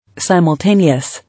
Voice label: "Simultaneous", English